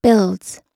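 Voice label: "builds", American English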